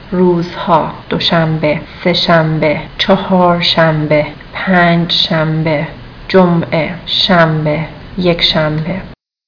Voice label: farsi